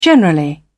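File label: "generally", British English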